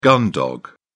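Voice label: "gun dog", British English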